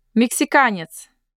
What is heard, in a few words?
Mexican male